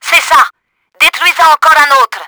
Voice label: FIRST TANK BLOWN, french; Battle Engine Aquila; XBOX

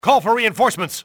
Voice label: call for reinforcements, english male; XBOX; enemy

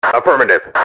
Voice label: affirm, radio, male; XBOX

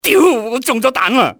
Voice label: im hit, cantonese male; XBOX; enemy

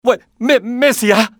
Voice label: "going on", male; XBOX; civ

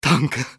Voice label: thank, civ male; XBOX

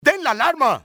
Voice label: sound the alarm, spanish soldier; enemy; XBOX

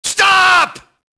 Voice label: police alert; enemy; Max Payne

